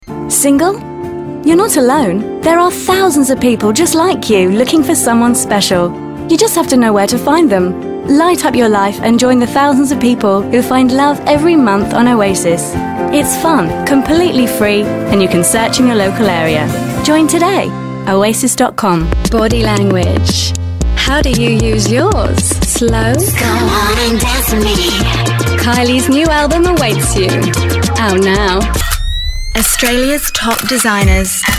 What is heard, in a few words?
Straight Reads